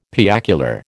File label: "piacular", American